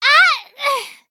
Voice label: hit, en; Cookie Run

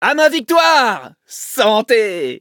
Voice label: victory, fr; Cookie Run

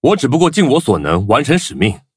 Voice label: levelup zh-hant; cookierunwiki

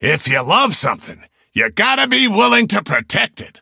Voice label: levelup en

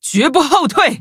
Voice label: battle zh-hant

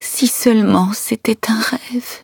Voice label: defeat, fr; cookie run

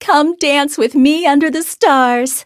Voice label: levelup, en; Cookie Run

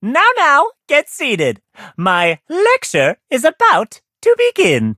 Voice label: levelup, en; Cookie Run

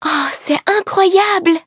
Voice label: victory, fr; Cookie Run

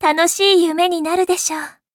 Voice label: levelup ja; cookie run

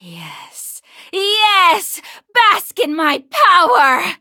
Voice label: levelup, en; cookie run

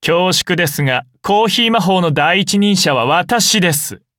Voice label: upgrade, ja; cookie run

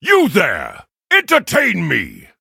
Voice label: levelup, en; cookie run

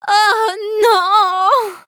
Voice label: defeat, fr; Cookie Run

